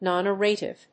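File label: "non-narrative", English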